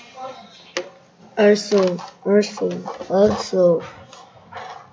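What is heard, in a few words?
"also", spoken word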